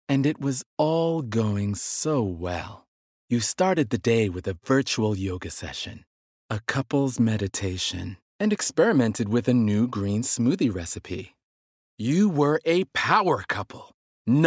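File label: ENUS Narration, American English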